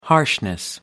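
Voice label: "harshness", British English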